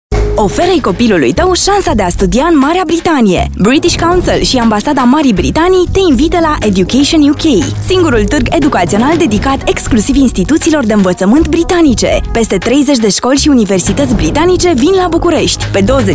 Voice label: Spot British Education